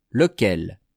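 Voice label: "lequel", French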